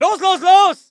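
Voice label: voice german infantry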